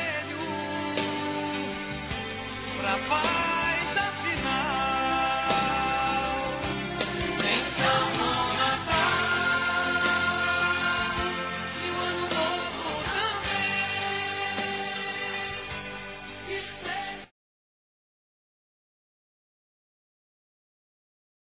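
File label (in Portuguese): Natal neutro feminino, Telemensagens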